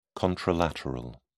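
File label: "contralateral", British English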